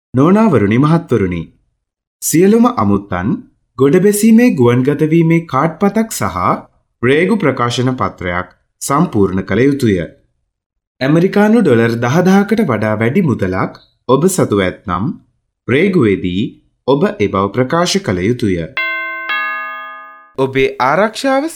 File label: Sinhalese Male